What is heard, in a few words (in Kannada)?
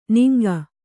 "ನಿಂಗ", Kannada